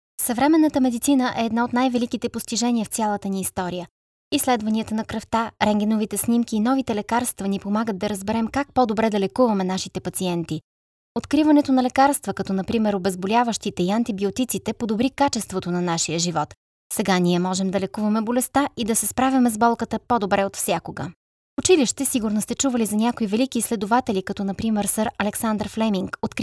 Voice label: female, bulgarian

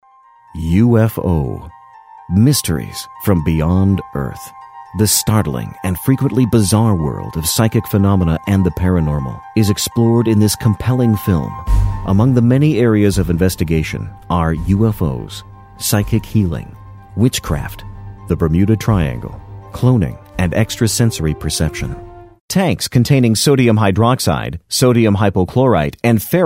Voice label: male english american

